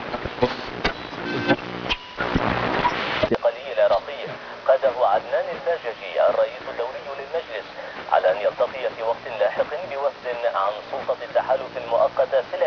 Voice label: arabic radio, ambient chatter; Counter Strike Model Pack; CS:S